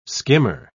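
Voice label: "skimmer", British English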